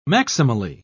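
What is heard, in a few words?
"maximally", British English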